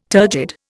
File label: "turgid", Indian